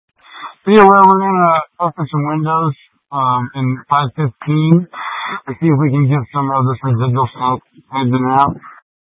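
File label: Incident Tactical 2, Scanner audio recording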